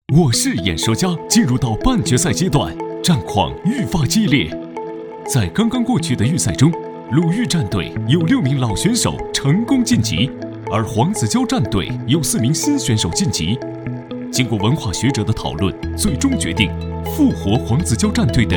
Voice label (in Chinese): 男, 电视节目片花; 北京卫视我是演说家-PK单元片花